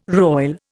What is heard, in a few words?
"roil", Indian